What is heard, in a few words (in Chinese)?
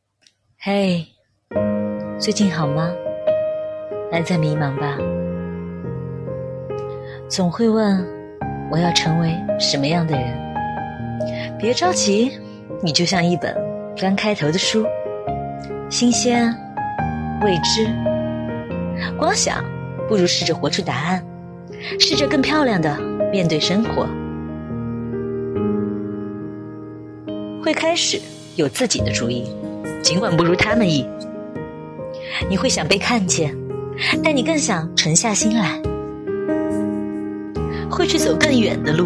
配乐独白; 致年轻时自己的信